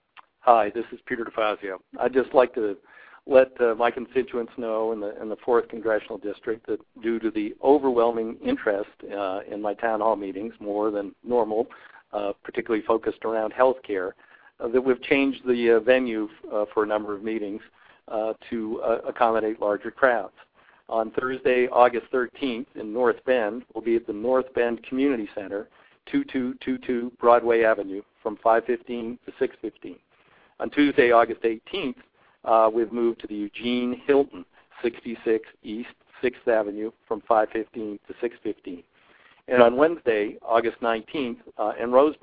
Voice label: voice message